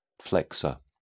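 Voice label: "flexor", British English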